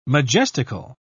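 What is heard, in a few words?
"majestical", British English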